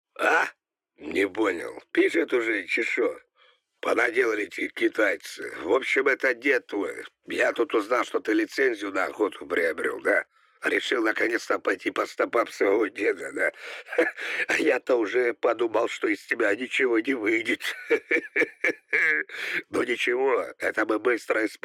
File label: telephone